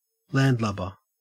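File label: "landlubber", Australian English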